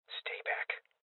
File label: Voices Guardian Male Young; rebel cops 2019